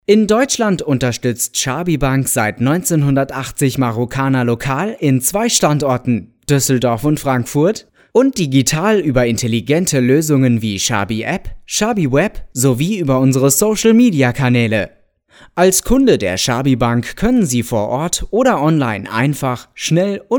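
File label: German, male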